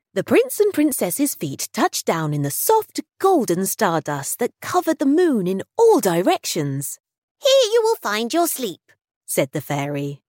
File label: childrens audiobook